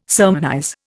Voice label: "sermonize", Indian

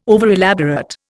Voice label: "overelaborate", Indian

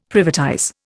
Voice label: "privatize", Indian; Dictionary

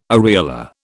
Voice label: "areola", British

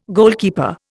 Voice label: "goalkeeper", Indian

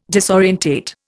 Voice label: "disorientate", Indian

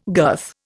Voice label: "girth", Indian

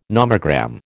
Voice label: "nomogram", American

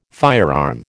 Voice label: "firearm", American

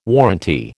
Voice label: "warranty", American

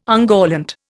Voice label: "ungallant", Indian

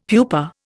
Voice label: "pupa", Indian; Dictionary

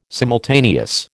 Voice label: "simultaneous", American

